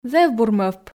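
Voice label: "зэв бур мӧвп", Komi, female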